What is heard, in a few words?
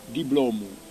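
"diplomu", Corsican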